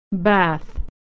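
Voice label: "bath", American English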